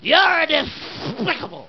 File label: "Youre despicable", Cheesy Lo-Fi